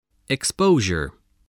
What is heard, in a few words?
"exposure", voice